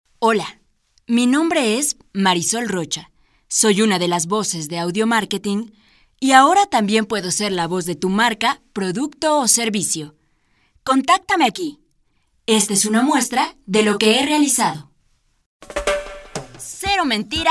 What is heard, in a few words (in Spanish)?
Locutora